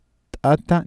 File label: tata ejective, Cusco Quechua; tʼ/ t’ata